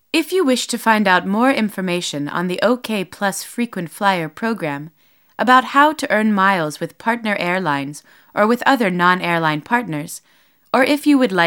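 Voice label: Female English ivr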